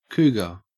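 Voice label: "cougar", Australian English; pronunciations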